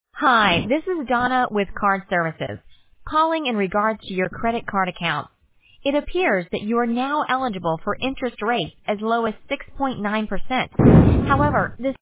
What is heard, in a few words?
voicemail card services